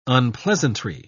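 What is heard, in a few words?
"unpleasantry", British English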